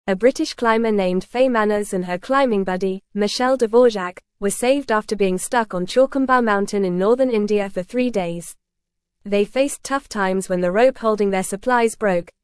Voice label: English Upper Intermediate FAST Reading; Resilience and Survival Climbers Ordeal on Himalayan Mountain